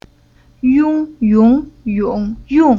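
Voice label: "Yong", chinese syllable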